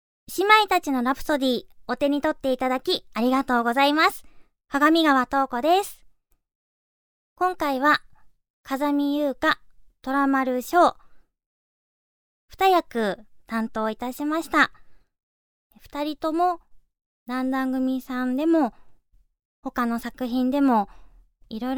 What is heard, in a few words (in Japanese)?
オーディオコメンタリー